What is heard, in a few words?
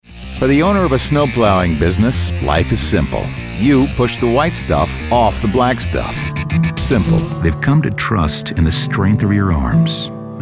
commercial